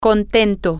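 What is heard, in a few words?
content, Spanish, female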